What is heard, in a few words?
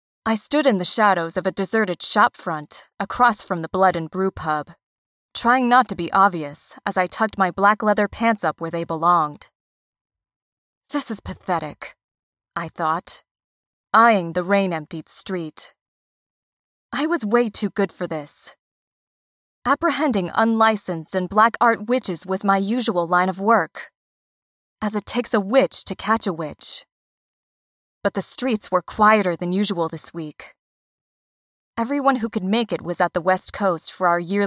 Audiobook Narration